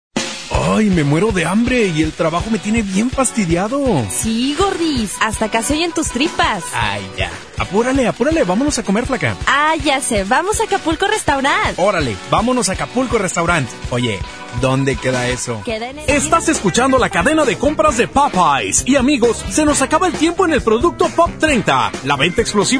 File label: Spanish males